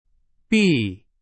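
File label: Chinese; hsk2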